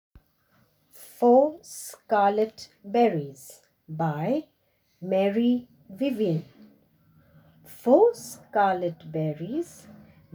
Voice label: English Poem